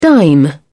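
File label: "dime", British English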